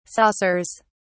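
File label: "saucers", English, British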